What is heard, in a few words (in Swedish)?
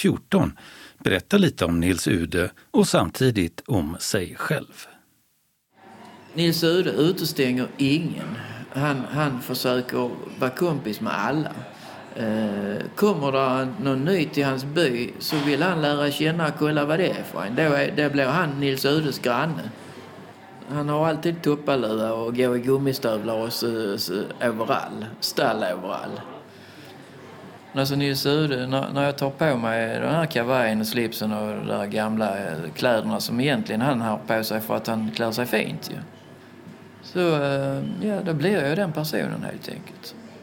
dialekt